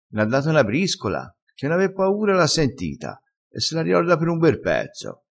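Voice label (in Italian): "briscola", dialettale crespinese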